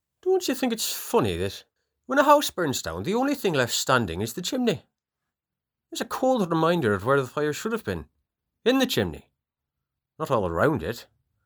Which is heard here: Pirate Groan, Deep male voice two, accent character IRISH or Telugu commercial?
accent character IRISH